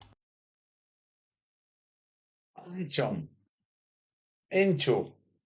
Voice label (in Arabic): "أَنتُم، إنتو", Arabic; you (pl.); introductory greetings